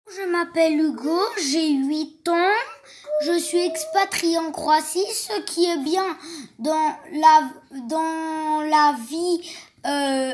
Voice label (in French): temoignage enfant expatrie en Croatie